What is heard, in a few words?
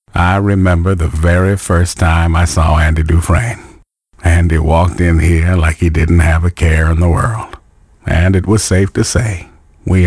Narration, Male voices